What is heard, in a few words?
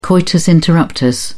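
"coitus interruptus", British English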